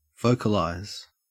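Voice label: "vocalize", Australian English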